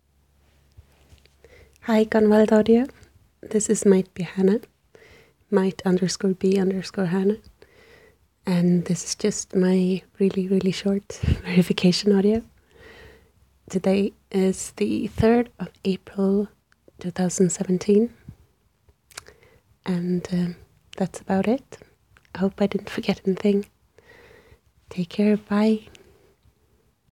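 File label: Female verification; GWA Verification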